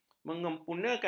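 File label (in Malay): "menyempurnakan", Malay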